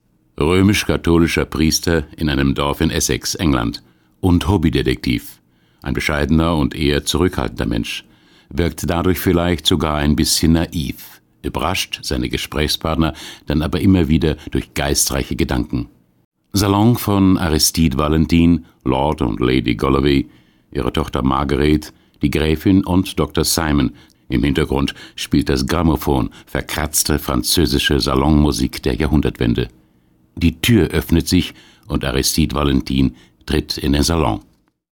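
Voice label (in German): hörbuch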